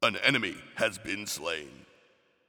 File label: Announcer Match Slain Enemy; Fdot